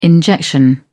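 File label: "injection", British English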